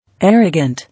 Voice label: "Arrogant", English

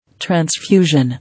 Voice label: "Transfusion", English

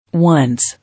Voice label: "Once", English

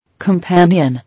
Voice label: "Companion", English

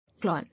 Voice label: "Lawn", English

